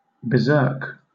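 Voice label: "berserk", British English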